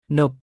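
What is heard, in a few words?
"នប" Khmer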